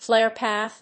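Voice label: "flare path", English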